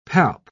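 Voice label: "palp", British English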